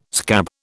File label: "scab", British